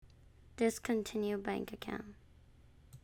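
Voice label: "discontinue bank account", English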